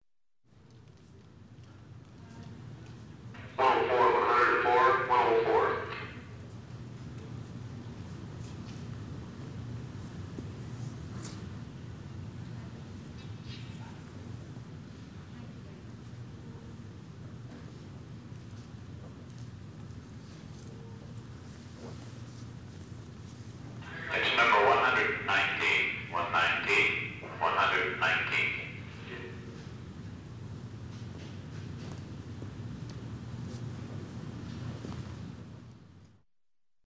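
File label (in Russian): metro stanciya dva obyavleniya angl.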